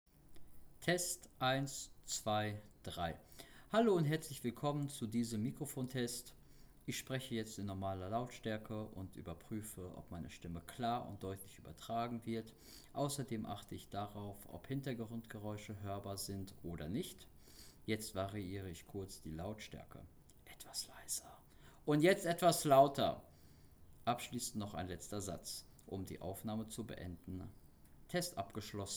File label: JBL Quantum250